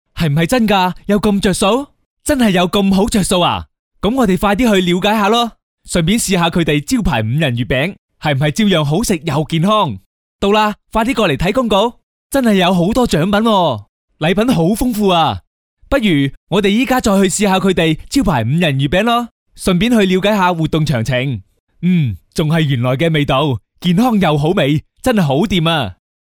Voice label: male cantonese voice over sales